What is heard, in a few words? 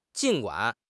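"jin3 guan3", Mandarin Chinese; HSK4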